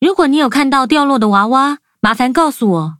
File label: touch, zh-hant; cookie run